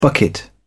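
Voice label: "bucket", English, British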